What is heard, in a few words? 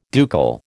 "ducal", American